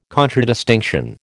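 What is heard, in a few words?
"contradistinction", American English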